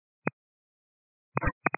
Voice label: 122 925MHz, scanner radio